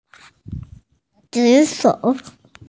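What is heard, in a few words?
"ju3 shou3", Mandarin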